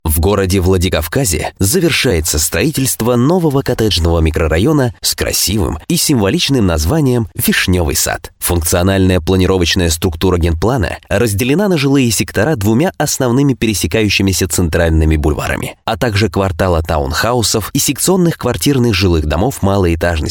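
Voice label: M, Russian, male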